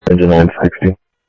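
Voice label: fire radio dispatch, Phoenix Fire; H-Scottsdale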